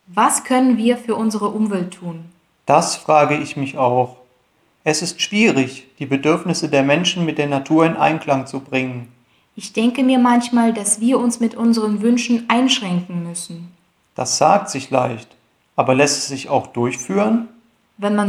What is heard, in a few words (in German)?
Dialog